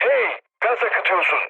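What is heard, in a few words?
Turkish damaged water, mood low, speech; War Thunder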